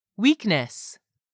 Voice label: "weakness", English; 3000 words

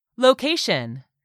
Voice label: "location", English; 3000 words

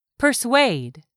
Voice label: "persuade", english; 3000 words

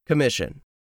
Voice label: "COMMISSION", english; 3000 words

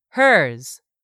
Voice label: "hers", English; 3000 words

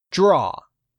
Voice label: "DRAW", English; 3000 words

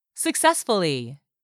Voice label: "SUCCESSFULLY", english; 3000 words

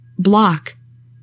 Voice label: "BLOCK", English; 3000 words

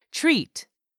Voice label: "TREAT", english; 3000 words

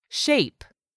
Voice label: "SHAPE", english; 3000 words

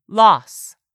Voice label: "loss", English; 3000 words

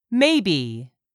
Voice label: "maybe", English; 3000 words

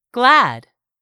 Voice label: "glad", English; 3000 words

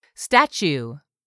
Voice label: "STATUE", english; 3000 words

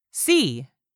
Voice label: "see", English; 3000 words

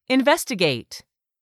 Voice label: "investigate", English; 3000 words

